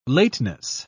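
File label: "lateness", British English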